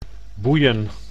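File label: "boeien", Dutch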